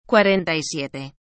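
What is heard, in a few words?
"cuarenta y siete", Spanish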